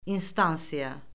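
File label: "instansiya", Azerbaijani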